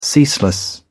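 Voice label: "ceaseless", British English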